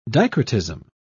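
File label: "dicrotism", British English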